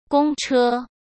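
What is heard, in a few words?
"公车", Mandarin Chinese; chinese flashcards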